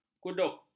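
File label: "kudup", Malay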